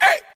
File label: "hey", Voice